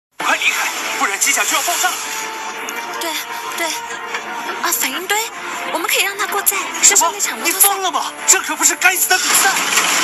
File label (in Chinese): 游戏 角色 配音 女声